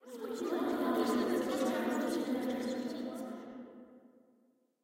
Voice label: Female talking; Phasmophobia